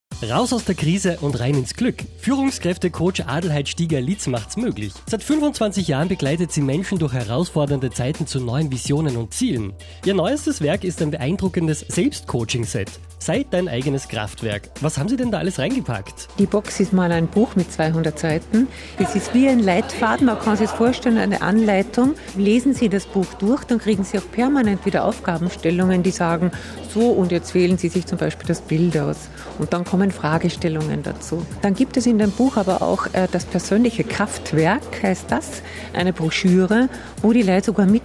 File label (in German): Radiospot